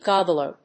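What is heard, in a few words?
"gobbler", English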